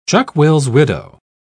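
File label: "chuck-will's-widow", British English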